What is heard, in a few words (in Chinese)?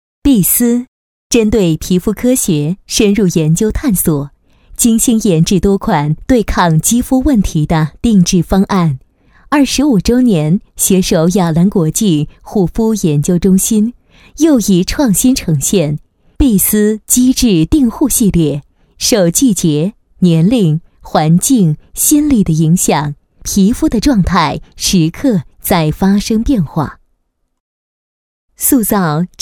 女54号-化妆品品牌介绍,配音案例; 碧斯肌智定护系列